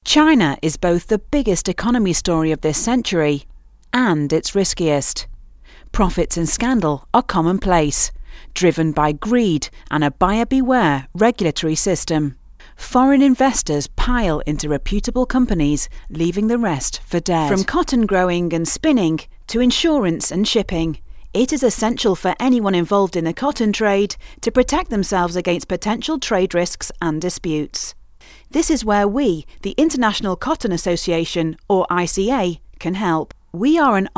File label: Corporate, UK; Voice-over demos